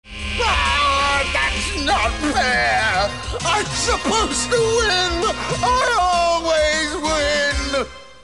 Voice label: whine whine whine